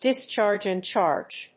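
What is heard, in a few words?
"discharge and charge", English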